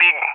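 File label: "Pig", English, RUSSIA; Allied Flight; CallSign; DCS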